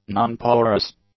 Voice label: "non-porous", english; adjectives